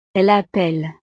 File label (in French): "elle appelle", frances